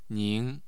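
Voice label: "ning", Mandarin Chinese, tone 2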